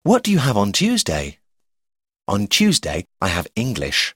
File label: tuesday english dialogue; timetable